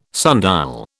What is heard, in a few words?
"sundial", British